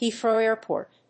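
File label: "Heathrow Airport", English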